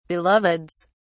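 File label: "beloveds", British English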